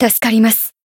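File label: healed, Japanese; felmina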